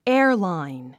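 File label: "airline", English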